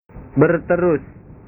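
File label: "berterus", Indonesian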